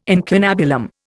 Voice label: "incunabulum", Indian

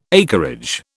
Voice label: "acreage", British

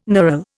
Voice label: "knurl", Indian

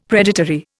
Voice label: "predatory", Indian; Dictionary

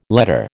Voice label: "letter", American

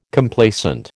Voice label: "Complacent", American

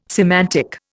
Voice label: "semantic", Indian

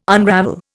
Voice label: "unravel", Indian

